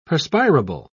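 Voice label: "perspirable", British English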